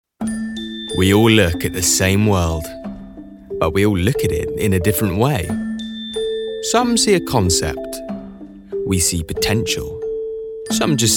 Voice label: commercials